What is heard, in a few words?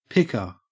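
"picker", Australian English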